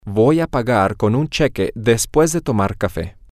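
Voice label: I am going to pay with a check after coffee, Spanish, female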